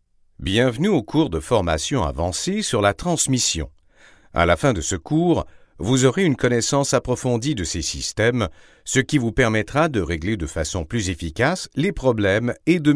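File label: French Canadian, Corporate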